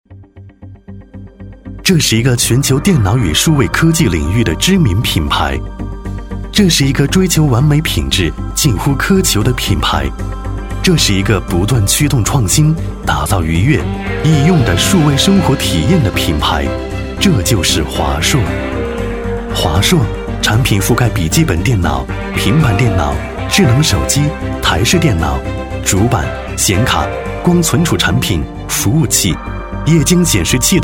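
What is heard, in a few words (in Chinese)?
国语 男声 专题片 大气浑厚磁性 沉稳; 华硕; 时尚、科技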